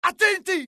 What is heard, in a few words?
alert, dialogue IT; mohaa; attack